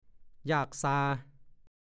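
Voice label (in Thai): "ยากซา" ภาษาโคราช; ยาก ลำบาก ยากเย็น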